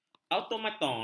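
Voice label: "automaton", Malay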